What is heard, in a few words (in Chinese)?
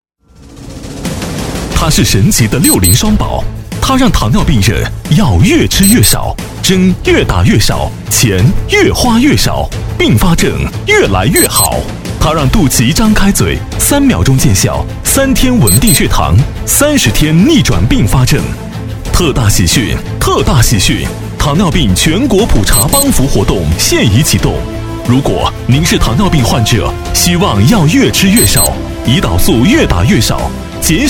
男 电视购物 激情大气; 六零双宝; 磁性质感